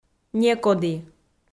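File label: "некоді", Komi, female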